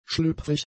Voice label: "schlüpfrig", German; adjectives

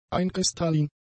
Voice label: "einkristallin", German; adjectives

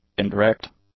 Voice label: "indirect", English; adjectives

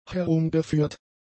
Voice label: "herumgeführt", German; adjectives

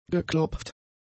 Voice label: "geklopft", German; adjectives

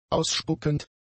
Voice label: "ausspuckend", German; adjectives

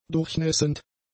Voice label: "durchnässend", german; adjectives

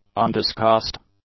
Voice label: "undiscussed", English; adjectives

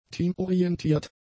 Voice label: "teamorientiert", German; adjectives

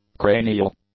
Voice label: "cranial", English; adjectives

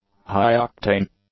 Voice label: "high-octane", English; adjectives

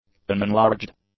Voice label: "unenlarged", english; adjectives

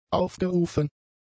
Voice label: "aufgerufen", German; adjectives

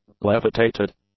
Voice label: "levitated", english; adjectives

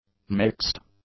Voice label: "mixed", English; adjectives

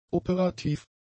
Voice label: "operativ", German; adjectives

